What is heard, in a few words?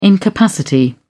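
"incapacity", British English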